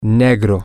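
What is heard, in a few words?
black, Spanish, male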